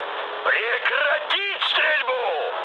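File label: player friendly fire, mood med, Russian; War Thunder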